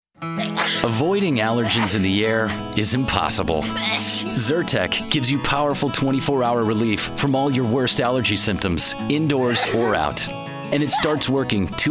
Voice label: commercial